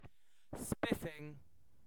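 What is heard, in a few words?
"spiffing", British English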